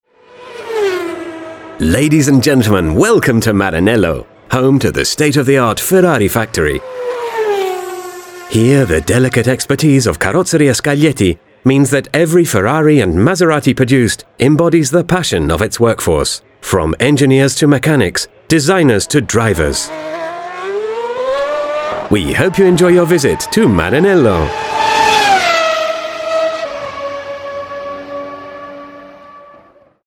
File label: commercial